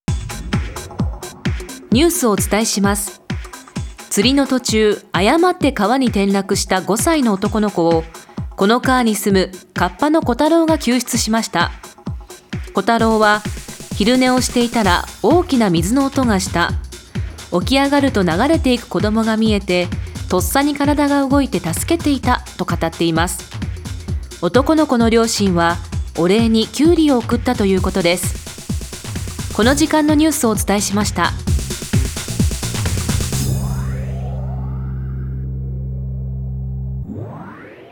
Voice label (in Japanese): ニュースサンプル